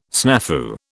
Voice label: "snafu", British